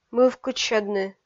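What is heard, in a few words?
"мывкыдсьӧдны", Komi, female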